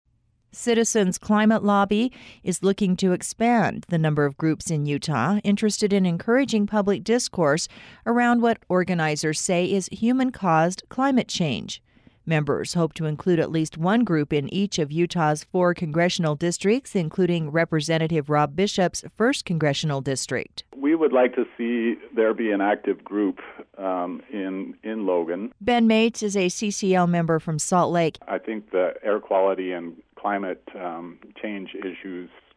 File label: NARRATION